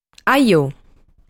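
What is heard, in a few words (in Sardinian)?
"Ajò", Sardinian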